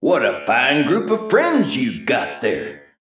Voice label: Male Mech Idle